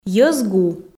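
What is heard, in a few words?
"йӧз гу", Komi, female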